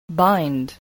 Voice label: "bind", English